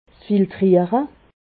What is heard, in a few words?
"filtriere", alsace